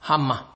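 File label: "hammer", Nigerian Pidgin, male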